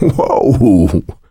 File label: positive noise, coach; survivor